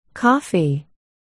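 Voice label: "coffee", spoken word, articulation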